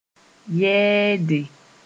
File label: "yɛɛde", moore